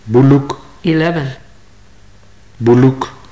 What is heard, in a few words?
numbers, maya